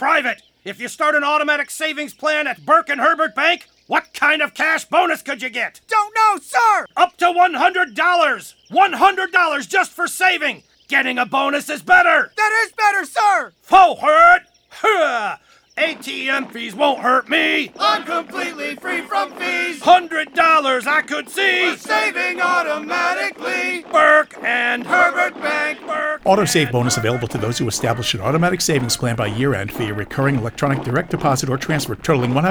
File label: radio Drill Sargeant Personal